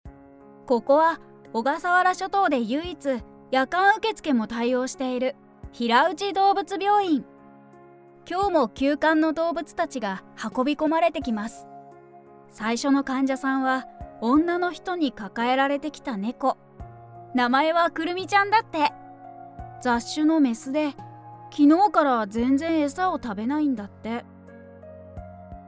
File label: female